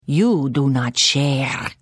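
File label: Hello, Khajiit female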